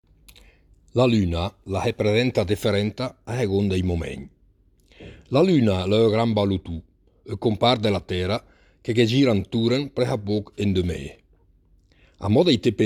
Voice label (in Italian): dialetto lumezzanese